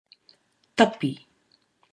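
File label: "tapi", Māori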